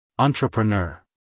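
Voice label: "entrepreneur", American English, male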